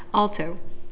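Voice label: "alto", CAN, female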